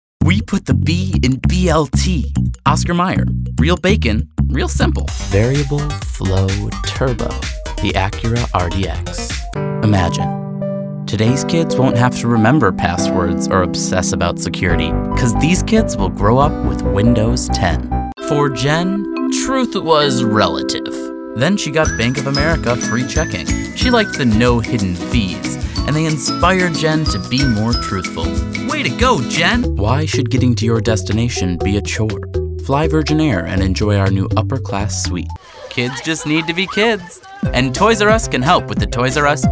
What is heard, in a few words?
Commerical VO